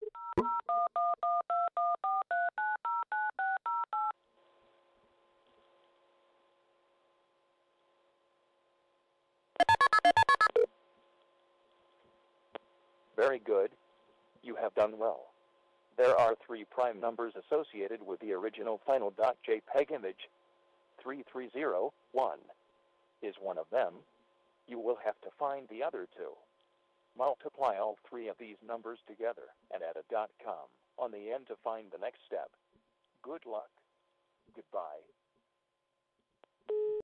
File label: phone call; cicada